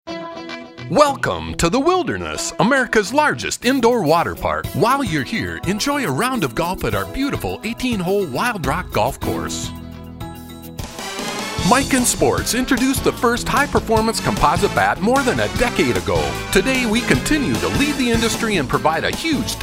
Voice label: Commercial VO